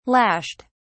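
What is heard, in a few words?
"lashed", British English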